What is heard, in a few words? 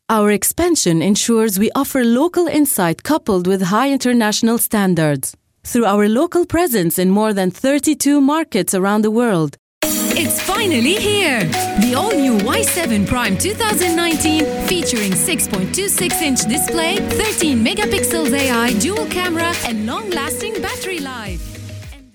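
Arabic English, female; accent of the arab league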